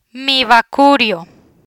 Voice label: "Mivacurio", Spanish

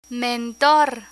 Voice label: "mentor", Spanish; human resources terminology; learn spanish

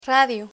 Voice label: "radio", Spanish

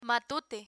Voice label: "matute", Spanish; learn spanish

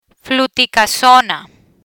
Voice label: "Fluticasona", Spanish

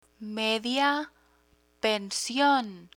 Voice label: "media pension", Spanish; travel the hotel; learn spanish

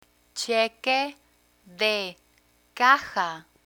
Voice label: "cheque de caja", Spanish; banking vocabulary

